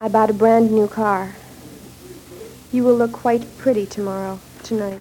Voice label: Reading samples of 22 year old white female Wakefield Michigan, american english dialect